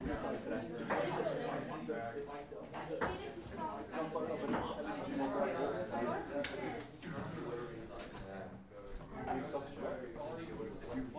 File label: Ambience Class Room Chatter